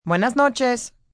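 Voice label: Goodnight Spanish Male; Goodbyes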